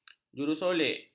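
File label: "jurusolek", Malay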